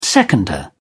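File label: "seconder", British English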